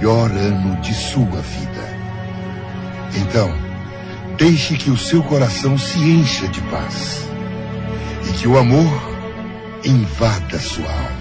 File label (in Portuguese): Mensagem De Natal E Ano Novo (Voz Masc)